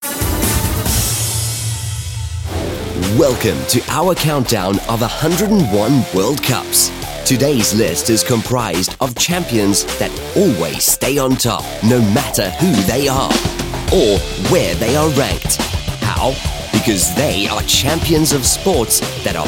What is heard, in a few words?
audio broadcast sample